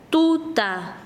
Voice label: Chanka, quechua; noche